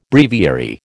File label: "breviary", American